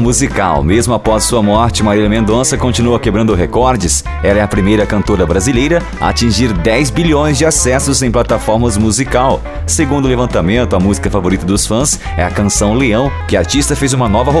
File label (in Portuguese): sertanejo news